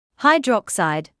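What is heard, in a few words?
"hydroxide", British English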